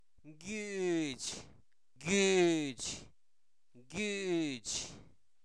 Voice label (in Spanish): Zapoteco Albarradas, santo domingo albarradas; INALI; enfermedades; pus